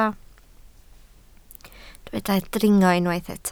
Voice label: "dringo", Welsh

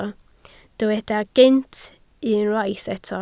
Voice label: "gynt", Welsh

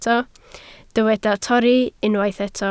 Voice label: "torri", Welsh

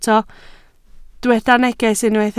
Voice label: "neges", Welsh

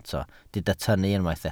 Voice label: "tynnu", Welsh

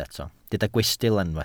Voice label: "gwystl", Welsh

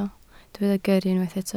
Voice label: "gyrru", Welsh

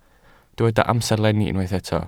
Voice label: "amserlenni", Welsh

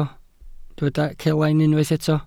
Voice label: "celain", Welsh

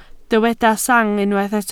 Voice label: "sang", Welsh

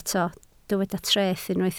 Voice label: "treth", Welsh

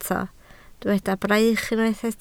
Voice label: "braich", Welsh

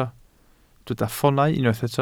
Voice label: "ffoonau", Welsh

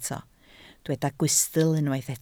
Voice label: "gwystl", Welsh

